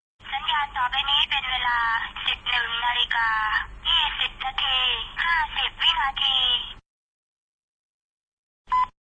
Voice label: time, thai; time service "181"; you will hear sip-neung instead of sip-et for 11